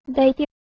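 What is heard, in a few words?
"dh2iti", Proto-Indo-European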